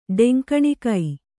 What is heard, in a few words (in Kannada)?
"ಡೆಂಕಣಿಕೈ", kannada